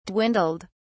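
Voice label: "dwindled", British English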